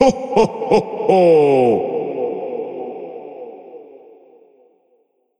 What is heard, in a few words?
"Ho ho ho", vocal exclamation